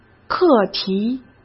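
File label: "课题", Mandarin Chinese; chinese-flashcards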